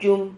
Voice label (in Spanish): "yum", Silabas